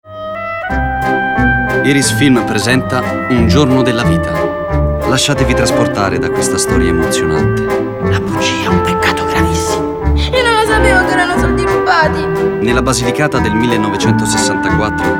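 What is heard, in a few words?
Italian male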